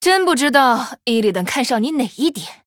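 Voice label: Female, Chinese; Night Elf; Tyrande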